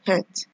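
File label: "hit", Nigerian Pidgin, female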